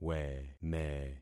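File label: "weme", concatenated syllables; Affixing experiment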